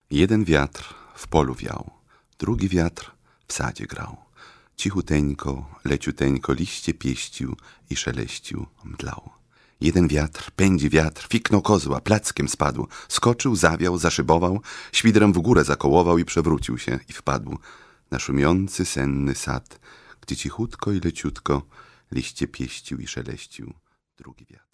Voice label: polish male voiceover locutor polaco